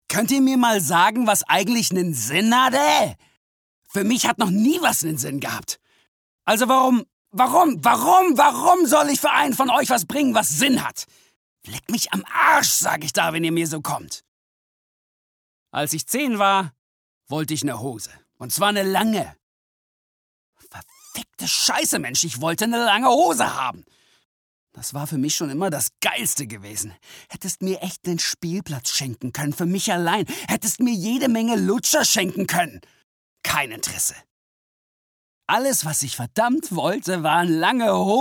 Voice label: Character